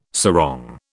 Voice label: "sarong", British